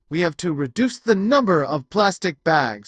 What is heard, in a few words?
anger; VALL-E